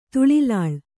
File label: "ತುಳಿಲಾಳ್", Kannada